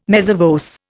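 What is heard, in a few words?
"mezza voce", English, Indian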